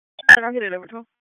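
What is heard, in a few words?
Raleigh Police Southwest District Dispatch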